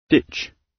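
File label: "ditch", British English; Time, history; Thời gian, lịch sử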